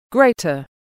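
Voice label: "grater", English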